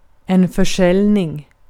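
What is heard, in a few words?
Swedish vocabulary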